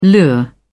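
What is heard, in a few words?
"lure", British English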